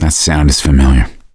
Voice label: heard witch, voice; Left 4 Dead 2; survivor; gambler